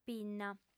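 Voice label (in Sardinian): "pinna", female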